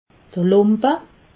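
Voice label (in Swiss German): "lumpe", Alsatian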